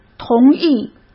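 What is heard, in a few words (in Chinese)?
"同意", Mandarin Chinese; hsk; chinese-flashcards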